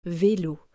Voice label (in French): "Velo", French